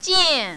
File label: "jian4", mandarin, female